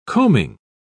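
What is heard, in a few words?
"coaming", British English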